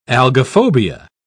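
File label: "algophobia", British English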